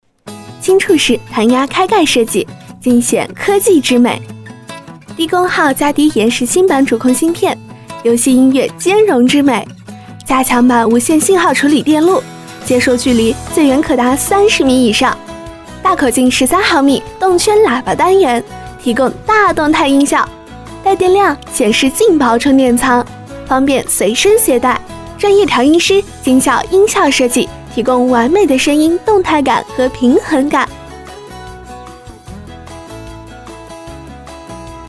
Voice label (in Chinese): 女 青春 产品广告